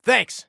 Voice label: Respond Thanks, Soldier Male; Soldier Male 01

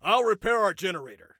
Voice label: SelfRepair Generator, Soldier Male; Soldier Male 02

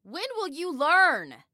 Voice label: Taunt Learn, Female

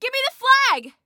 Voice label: Flag Give Me, Female; Female 02

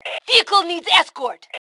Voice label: Need Escort, Female

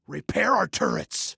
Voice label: Repair Turrets, Male

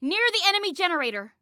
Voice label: Warn Enemy Near Enemy Generator, Female; Female 02

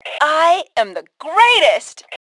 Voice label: Taunt Brag, Female; T2 Female 01

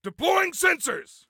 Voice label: Self Task Deploy Sensors, Soldier Male; Soldier Male 03

